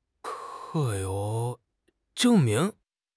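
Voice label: "zheng4 ming2", Mandarin Chinese; HSK4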